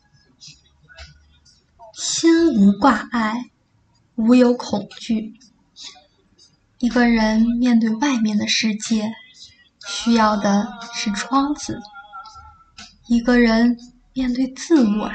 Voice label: 散文类; 心无挂碍无有恐惧

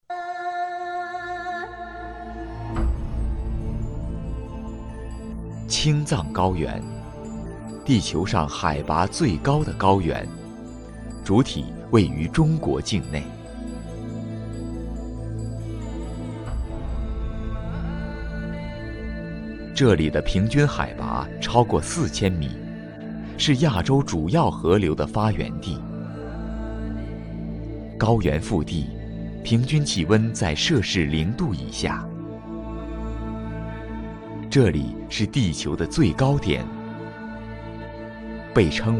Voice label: 解说; 第三极

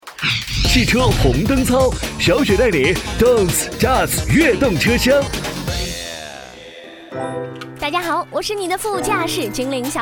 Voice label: 耳部操嘻哈清新; 红灯操提拉耳部操

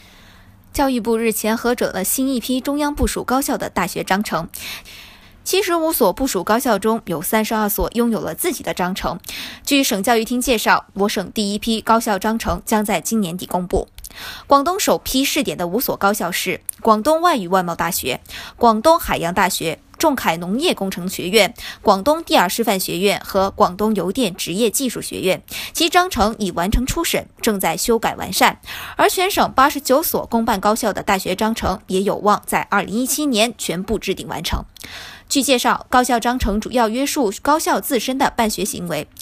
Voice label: 新闻播报普通话

